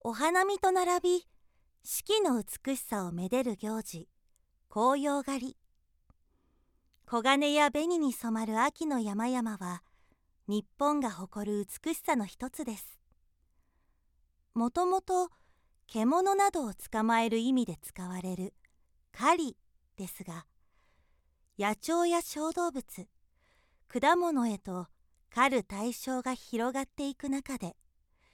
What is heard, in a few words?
narration